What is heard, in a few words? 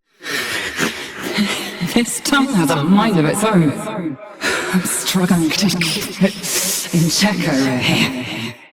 Ambient Barks, en